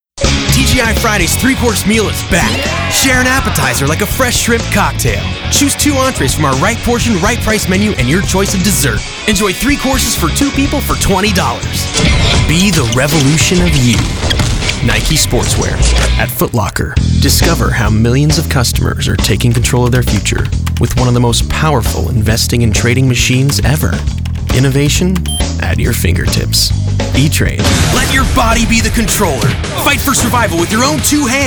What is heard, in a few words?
Commercials, commercial voiceover